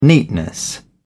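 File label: "neatness", British English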